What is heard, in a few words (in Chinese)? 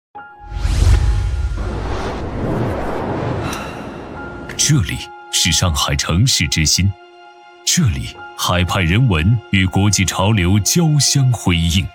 招商宣传片; 上海虹口区